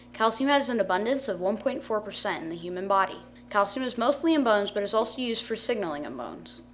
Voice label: narration; calcium